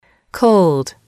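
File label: "cold", English, female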